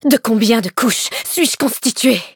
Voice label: levelup, fr